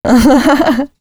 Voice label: female c# 138, Dance Ultra Voice; Mutekki Media